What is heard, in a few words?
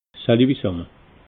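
"salu bisamme", Alsatian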